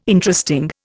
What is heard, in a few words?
"Interesting", Indian